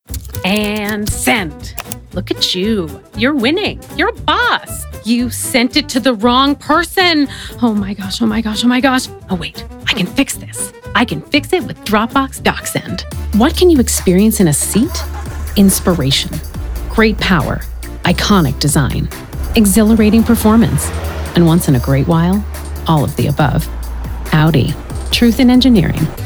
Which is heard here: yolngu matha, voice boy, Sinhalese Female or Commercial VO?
Commercial VO